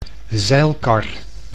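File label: "zeilkar", Dutch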